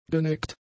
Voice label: "geneckt", German; adjectives

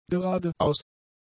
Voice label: "geradeaus", German; adjectives

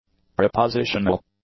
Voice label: "prepositional", english; adjectives

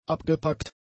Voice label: "abgepackt", German; adjectives

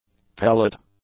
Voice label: "pellet", English; nouns

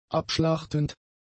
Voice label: "abschlachtend", German; adjectives

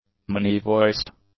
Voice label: "many-voiced", English; adjectives

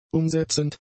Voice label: "umsetzend", German; adjectives

